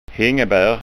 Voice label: "hingbar", skanska ord

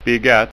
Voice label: "bigatt", skånska

